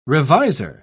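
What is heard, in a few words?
"reviser", British English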